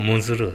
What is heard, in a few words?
"muzuru", minamiyamagata